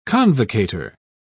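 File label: "convocator", British English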